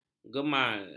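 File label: "gemal", Malay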